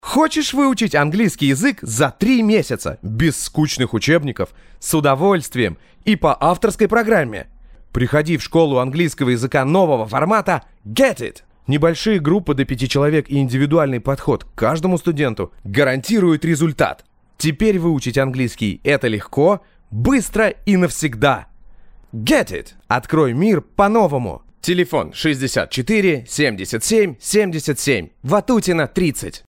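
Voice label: zg pozitivno, positive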